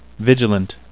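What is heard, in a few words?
"vigilant", English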